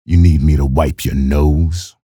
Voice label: chatter positive; keel; frag

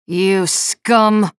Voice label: chatter negative; nyx; respawn

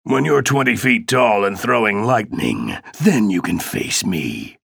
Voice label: chatter positive; ranger; frag

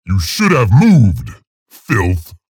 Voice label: chatter positive; scalebearer; frag

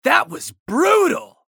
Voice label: chatter negative; anarki; respawn

